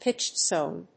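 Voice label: "Pitchstone", English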